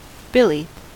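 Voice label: "billy", American English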